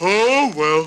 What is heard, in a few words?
"oh well", cartoon; expletives